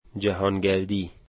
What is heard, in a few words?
tourism, Dari